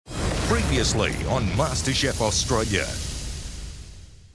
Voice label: In Show Narration